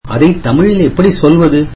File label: tamil What is that called in Tamil